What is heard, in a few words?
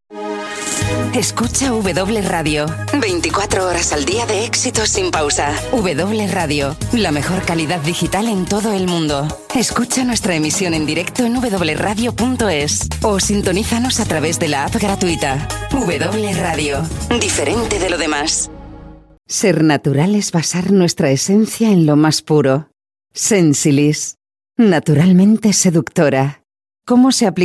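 F, Spanish Spain, female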